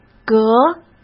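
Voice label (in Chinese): "隔", Mandarin Chinese; chinese flashcards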